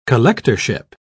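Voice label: "collectorship", British English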